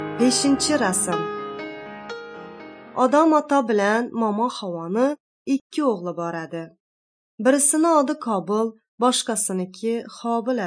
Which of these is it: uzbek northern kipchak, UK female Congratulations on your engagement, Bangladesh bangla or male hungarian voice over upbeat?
uzbek northern kipchak